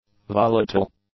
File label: "volatile", English; adjectives